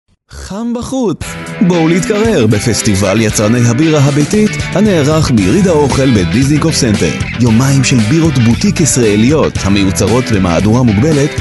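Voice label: Hebrew, male, commercial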